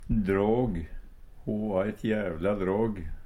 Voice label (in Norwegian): "dråg", Numedalsmål